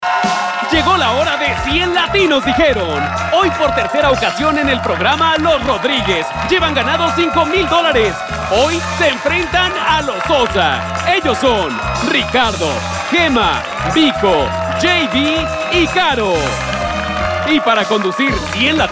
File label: spanish mexican tv shows